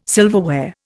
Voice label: "silverware", Indian